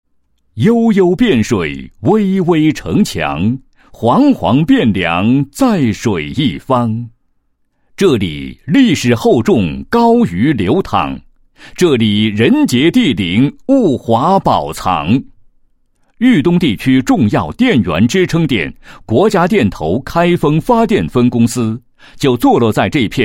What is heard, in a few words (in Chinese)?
男 企业; 开封发电分公司燃料质量检验中心简介